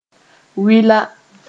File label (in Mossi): "wɩla", moore